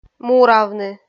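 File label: "муравны", Komi, female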